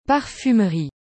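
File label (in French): "parfumerie", French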